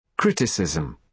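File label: "criticism", British English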